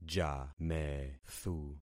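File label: "jamethu", concatenated syllables; Affixing experiment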